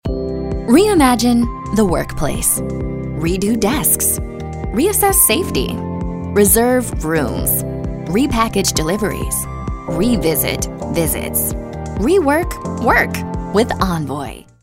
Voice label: friendly